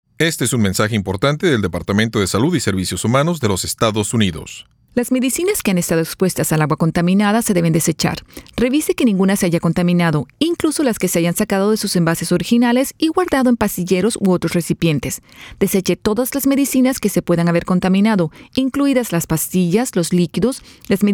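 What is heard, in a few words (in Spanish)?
Spanish PSA; CDC; Drugs Exposed To Water; disasters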